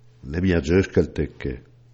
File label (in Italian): bergamasco